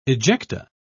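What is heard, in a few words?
"ejecta", British English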